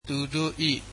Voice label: "their", Burmese

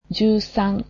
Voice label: "thirteen", japanese

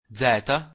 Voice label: italian alphabet z

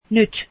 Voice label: now, finnish

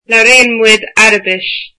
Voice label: we speak Arabic, irish

